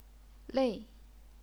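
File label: "lei", Chinese, fourth tone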